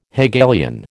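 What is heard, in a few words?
"Hegelian", American English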